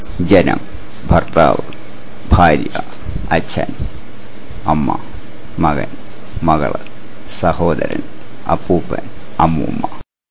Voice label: malayalam people